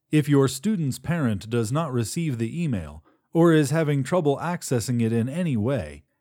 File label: Corp Training video flat